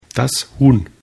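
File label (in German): "huhn", German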